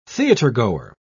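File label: "theatergoer", British English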